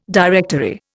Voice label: "directory", Indian

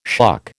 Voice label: "shuck", American

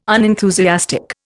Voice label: "unenthusiastic", Indian

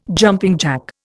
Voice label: "jumping jack", Indian

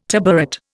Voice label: "tabouret", Indian

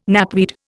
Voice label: "knapweed", Indian

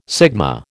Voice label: "sigma", American

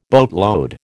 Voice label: "boatload", American

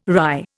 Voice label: "rye", Indian

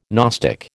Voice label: "gnostic", American

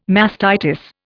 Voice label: "mastitis", Indian

